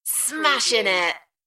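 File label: British female Smashin it; beanstalk audio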